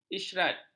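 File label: "isyarat", Malay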